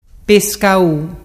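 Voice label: "pescau", Ligurian dialect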